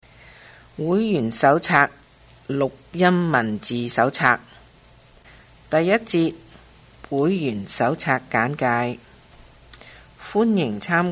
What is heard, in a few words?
Chinese, Cantonese; Member Handbook